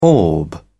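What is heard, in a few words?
"orb", English, British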